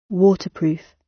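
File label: "waterproof", British English; Design and innovation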